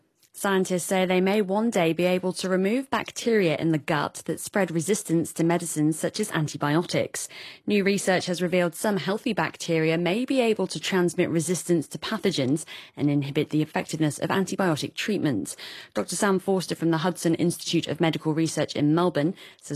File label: ABC News Radio